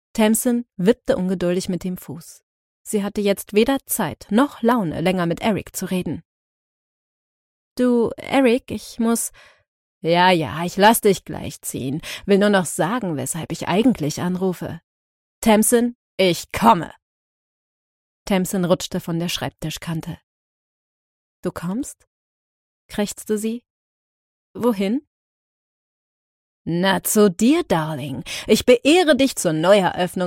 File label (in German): Hörbuch Roman; DARCY